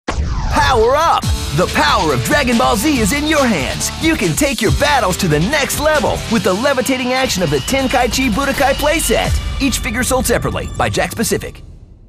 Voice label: high energy